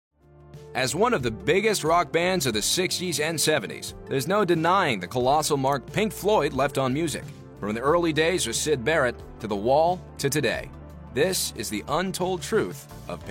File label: Male, narration